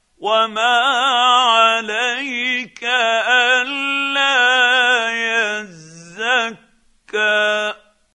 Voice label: quran, Hussary, tafseer; Abasa